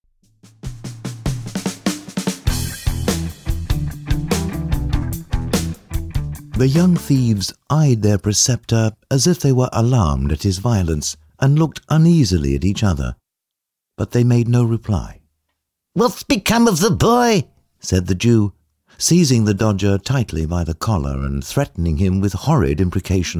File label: audiobook